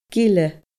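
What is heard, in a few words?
"gile", Gaelic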